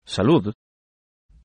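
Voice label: cheers Spanish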